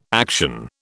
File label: "action", British